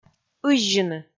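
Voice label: "ыззьыны", Komi, female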